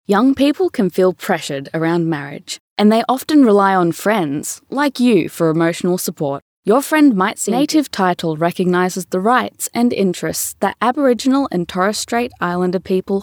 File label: Narration